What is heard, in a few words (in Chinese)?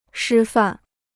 "师范", Chinese